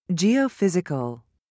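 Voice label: "geophysical", British English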